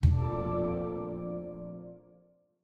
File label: dragon dialogue reaction positive; tft